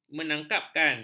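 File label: "menangkapkan", Malay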